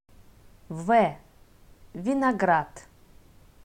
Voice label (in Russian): "в", Russian